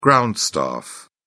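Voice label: "ground staff", British English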